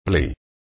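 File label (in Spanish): "ple", Spanish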